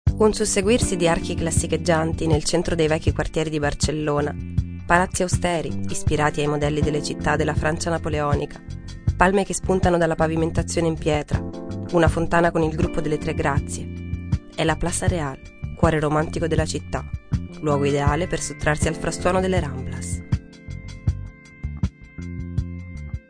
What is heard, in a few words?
audioguide, Italian, female